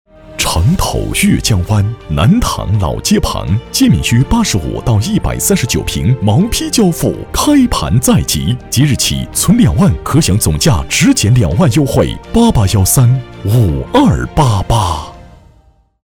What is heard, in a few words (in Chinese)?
男-大气广告; 城投悦江湾